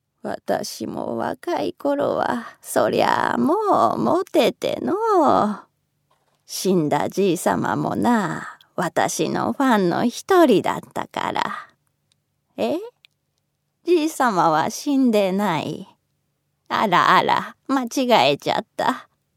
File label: female voice; セリフ